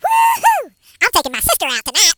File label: Victory, Hill Billy; hedgewars